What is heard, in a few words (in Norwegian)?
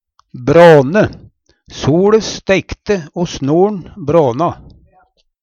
"bråne", Numedalsmål; Verb; Vêr og føre; Hushald, mat, drikke